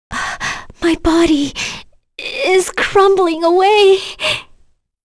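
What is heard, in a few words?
Vox Dead, voices heroes English; Kirze; kingsraid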